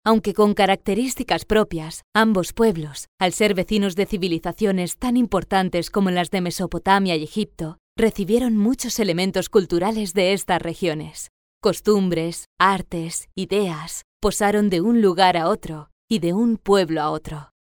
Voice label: spanish f commercial, spanish voice demos